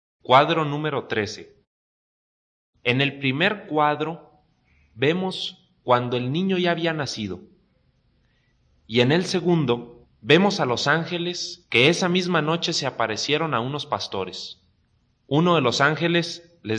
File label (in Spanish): good news spanish mexico